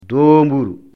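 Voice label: "doomburu", Fula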